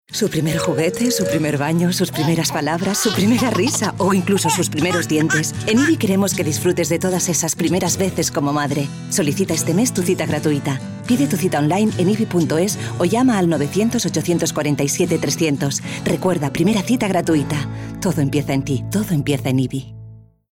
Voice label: female spanish comercial; ideasonora

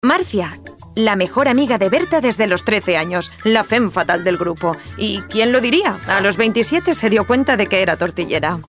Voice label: female spanish acting comercial; ideasonora

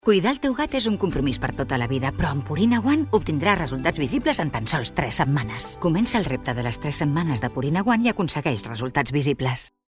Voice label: female catalan pet food comercial; ideasonora

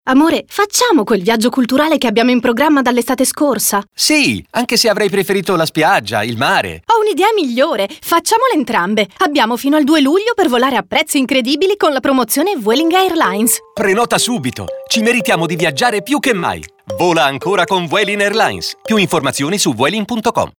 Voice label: female italian comercial; ideasonora

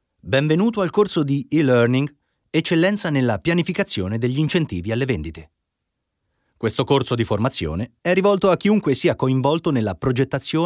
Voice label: male italian comercial

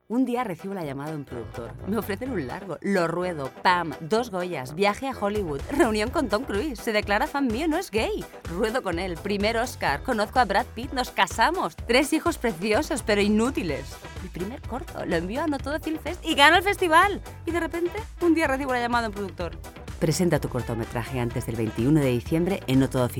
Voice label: female spanish film comercial

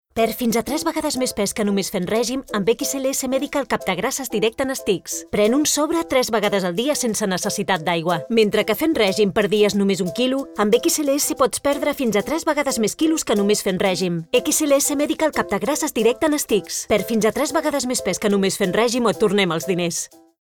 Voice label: female catalan comercial

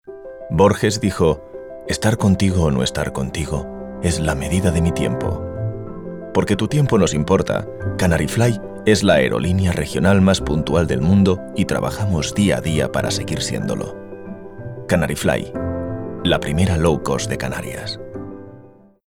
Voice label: male spanish travel comercial; ideasonora

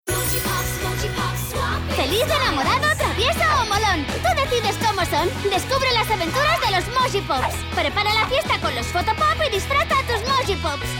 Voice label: female spanish toys comercial

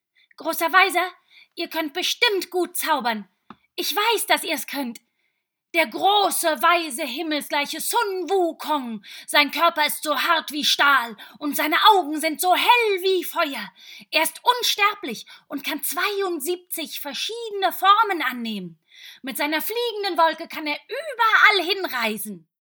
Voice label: female german kids comercial

